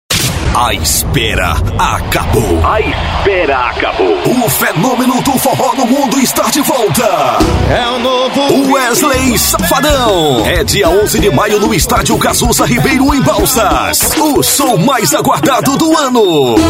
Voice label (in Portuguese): Locutor Chamadas Offs Spot Propaganda Comerciais